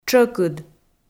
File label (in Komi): "тшӧкыд", Komi